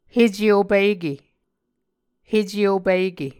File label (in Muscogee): "heceopike", Muscogee